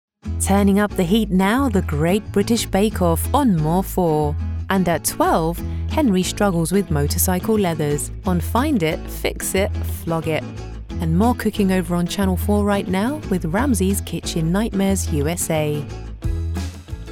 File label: CONTINUITY